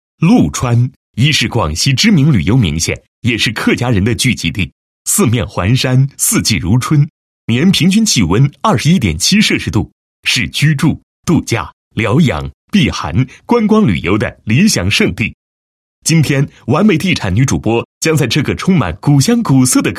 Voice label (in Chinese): 男, 栏目配音; 《今天去哪儿》第二站